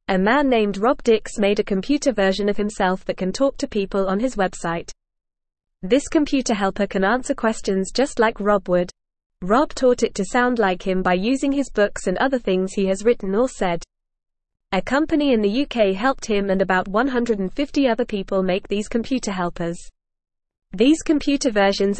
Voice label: English Lower Intermediate FAST Reading; Rob Dix Creates Smart Copy of Himself on Website